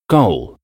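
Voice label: "goal", English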